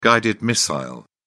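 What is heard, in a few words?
"guided missile", British English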